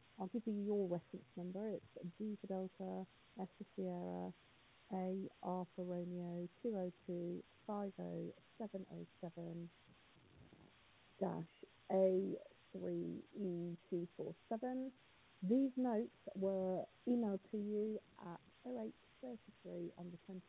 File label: call recording